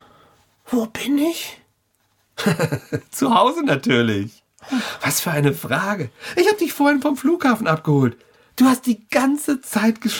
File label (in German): Hoerspiel